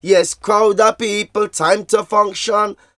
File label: Vocals; Jungle Frenzy 1